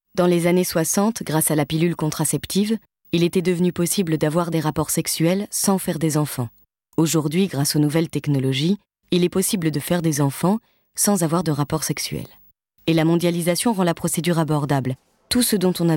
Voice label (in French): COMMENTAIRE; Google Baby